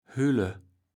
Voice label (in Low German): "hülle", kaevels platt